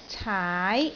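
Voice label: "caai2", Cantonese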